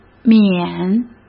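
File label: "免", Mandarin Chinese; chinese flashcards; hsk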